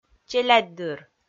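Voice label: "челядьдыр", Komi, female; kpv audio dict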